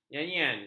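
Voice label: "nyanyian", Malay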